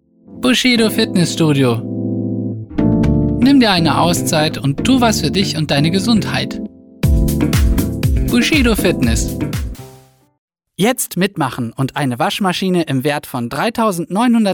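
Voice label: German, male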